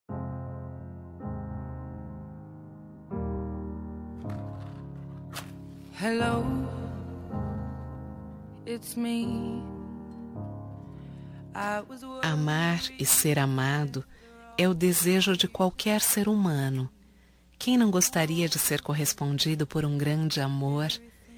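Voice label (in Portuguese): romântica distante female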